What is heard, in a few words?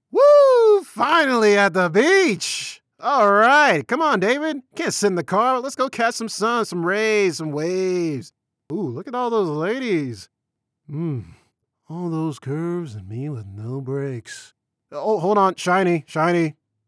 Animated Friend Sample